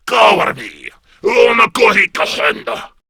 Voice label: Cover Me, Male

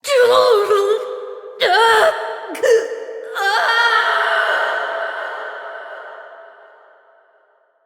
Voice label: Bridge Collapse Adult; Warframe; Duviri Quest; Duviri Paradox Quest

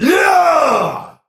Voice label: Attack Effort Heavy; Tau; Dax; Battle Barks

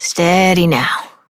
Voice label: Horse Calm Adult; Duviri

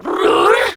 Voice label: Surprised, Female; Warframe; Grineer Female; Battle Barks

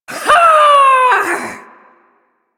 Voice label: Ability Long Cast, Barks; Warframe; Corpus Lich; Persona D

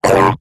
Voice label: Pain, Robot Large

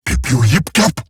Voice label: Surprised Eximus Corpus Crewman; Warframe; Battle Barks

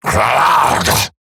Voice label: Attack Eximus Grineer Male; Battle Barks

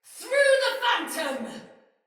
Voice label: Shoot Arrow, Soldier Female; Battle; Barks; Dialog; Sinecure Soldier Female A

